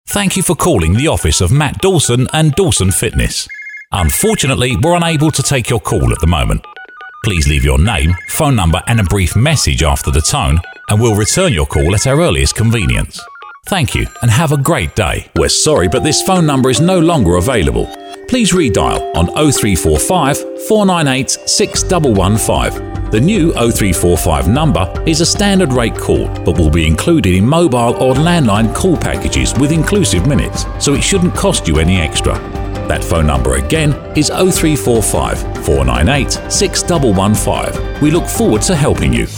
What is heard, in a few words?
male british voicemail; voicemail demo